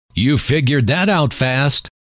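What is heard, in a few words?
you Figured That Out Fast, male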